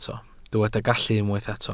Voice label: "gallu", Welsh